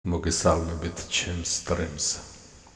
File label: follow voice